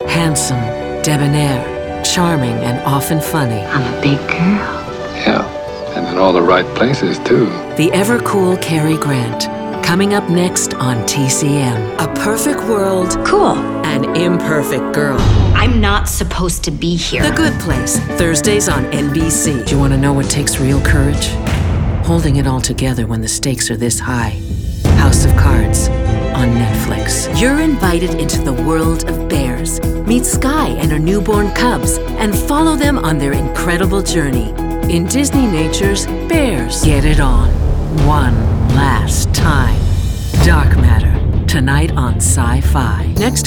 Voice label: Promo